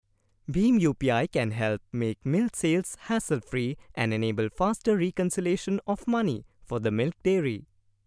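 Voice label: English male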